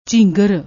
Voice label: "jhingr", Punjabi